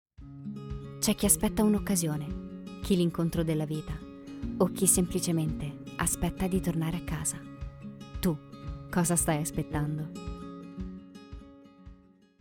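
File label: Italian General; GoLocalise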